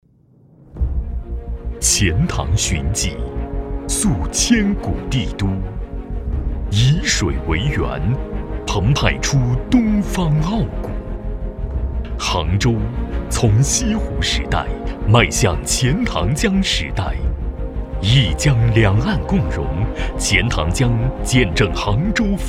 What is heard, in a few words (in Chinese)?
专题, 政府, 浑厚; 杭州